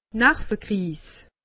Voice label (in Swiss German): "narvekriis", alsace; medecine